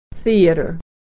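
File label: "theater", American English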